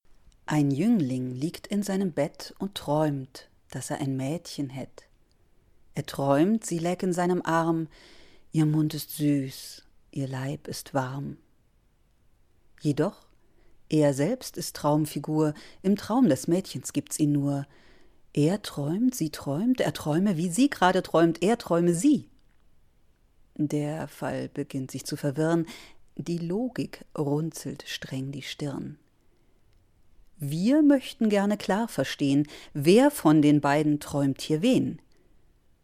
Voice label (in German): Stimmprobe Gedicht